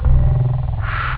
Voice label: bass, speech

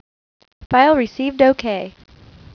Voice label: File Received OK (Female)

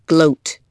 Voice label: gloat

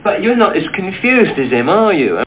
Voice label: confused

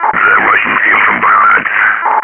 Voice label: Chatter

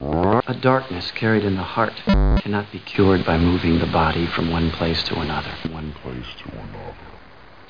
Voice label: STATIC; Babylon 5; Lennier

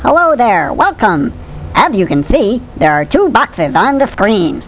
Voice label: Narrator; How Many Bugs in a Box

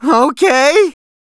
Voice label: Ok weak, Male; gangsters

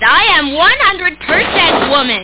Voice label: woman; ranma

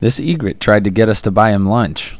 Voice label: "EGRET", SPANISH

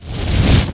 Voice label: zombie; CD Action; lomax

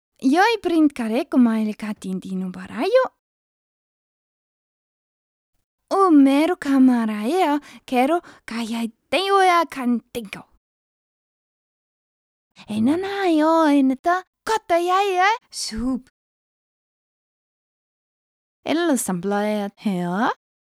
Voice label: talk Casual; Singles Flirt Up Your Life

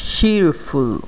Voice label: "cheerful"

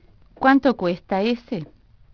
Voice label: HOW MUCH, SPANISH

